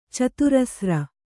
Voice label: "ಚತುರಸ್ರ", Kannada

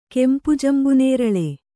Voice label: "ಕೆಂಪುಜಂಬುನೇರಳೆ", Kannada

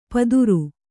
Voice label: "ಪದುರು", Kannada

